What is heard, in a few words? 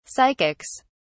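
"psychics", British English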